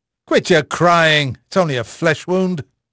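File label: English male; Pirates Of The Caribbean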